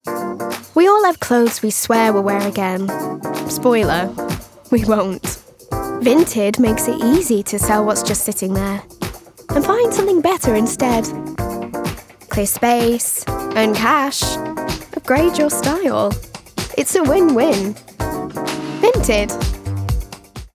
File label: Manchester, Cool, Young, Upbeat, Fresh; Vinted